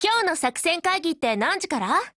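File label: HELLO Japanese, Voice; GF Alarm; HSM10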